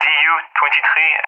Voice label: "ZU-23", ENG; AirDefence; Allied Flight; DCS